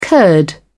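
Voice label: "curd", British English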